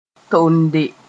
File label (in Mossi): "tonde", moore